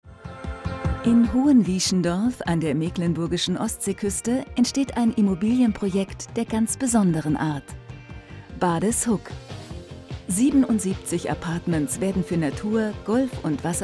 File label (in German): Imagefilm; Bades Huk